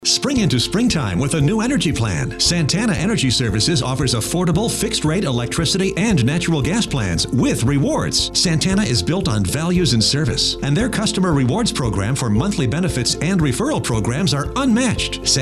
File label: Male; Santana Energy